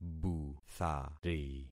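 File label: "buthari", concatenated syllables; Affixing experiment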